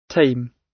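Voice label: "tame" British; Flora and fauna, agriculture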